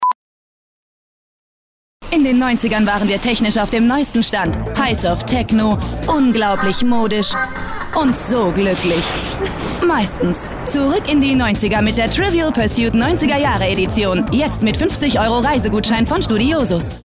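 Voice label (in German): Sprecherin, female speaker, German; WERBUNG; TRIVIAL PURSUIT: 90er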